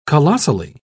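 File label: "colossally", British English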